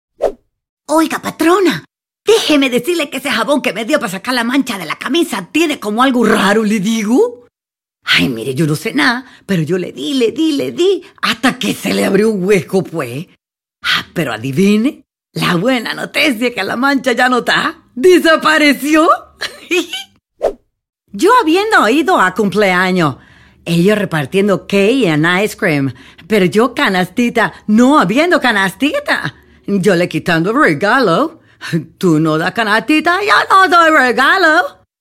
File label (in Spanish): personajes caracteres